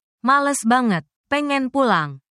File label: indonesian phrases